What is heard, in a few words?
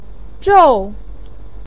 "zhòu", voice pinyin